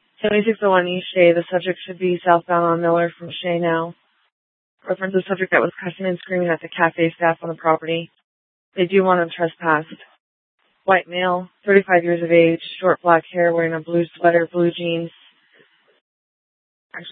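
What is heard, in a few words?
police dispatch, Scottsdale PD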